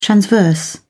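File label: "transverse", British English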